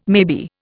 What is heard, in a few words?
"maybe", Indian